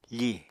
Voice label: "fì", Apatani